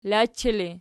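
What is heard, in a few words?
"lachi" ergative, Archi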